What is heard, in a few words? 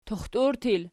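"tuxtur" plural, Archi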